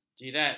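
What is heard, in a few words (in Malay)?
"jirat", Malay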